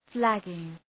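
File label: "flagging", English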